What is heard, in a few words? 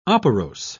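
"operose", British English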